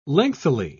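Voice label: "lengthily", British English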